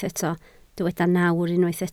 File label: "nawr", Welsh